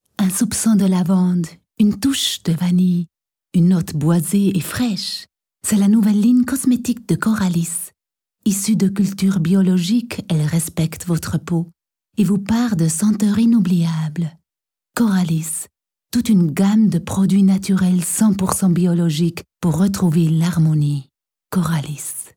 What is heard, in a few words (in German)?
Spot; Coralys 2008